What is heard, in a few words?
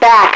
back, radio female